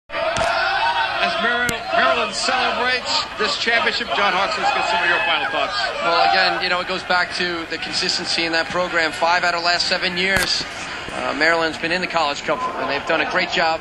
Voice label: commentary soccer title